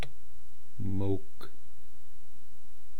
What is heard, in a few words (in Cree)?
"mwaakw", eastcree